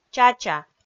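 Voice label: "чача", Komi, female; kpv audio dict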